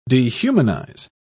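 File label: "dehumanise", British English, dictionary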